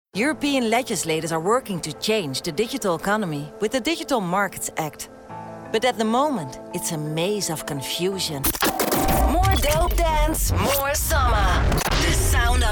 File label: allround English